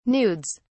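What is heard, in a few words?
"nudes", British English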